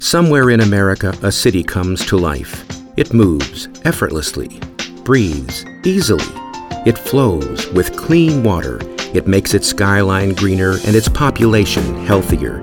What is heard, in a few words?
Intimate